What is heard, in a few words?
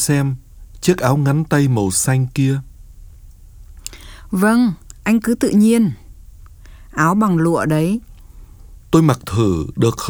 Dialog